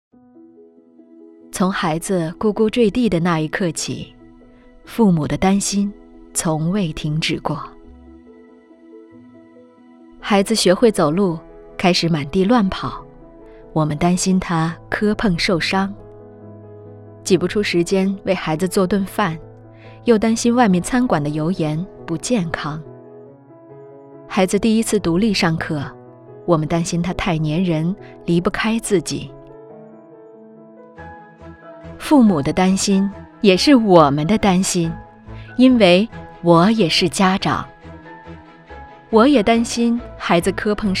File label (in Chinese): 企宣; 少儿成长中心